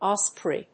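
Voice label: "osprey", English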